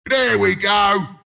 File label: announcer positive event end; bristleback